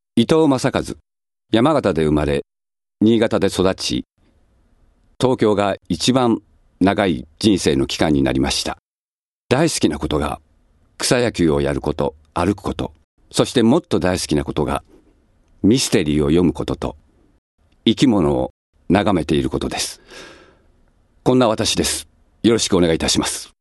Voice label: freetalk, male voice